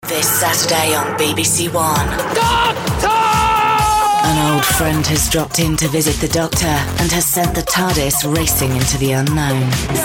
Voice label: promos